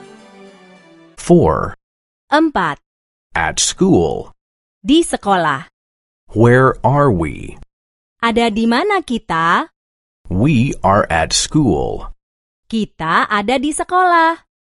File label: ELearning Languages